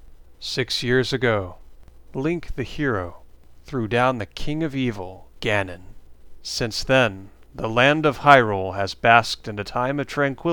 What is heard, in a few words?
narrator sample; bs zelda